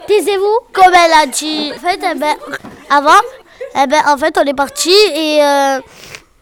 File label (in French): taisez vous sniff